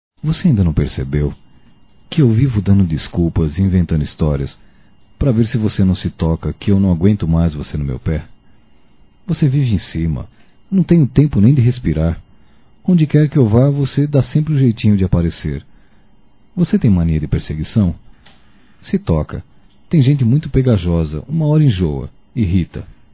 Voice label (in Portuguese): Larga do meu Pé Masculino, Broncas; Telemensagens 2017